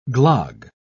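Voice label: "glogg", English, British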